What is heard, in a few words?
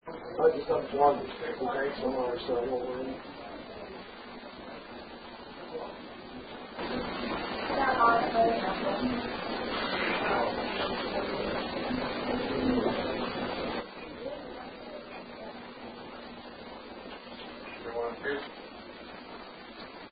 Home Attic WHISPER AMP Inaudible; Case 021 Zepp